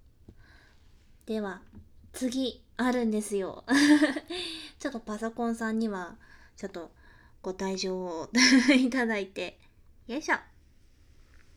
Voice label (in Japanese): ASMR 前マイク移動 バイノーラル